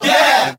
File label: "yeah", group of men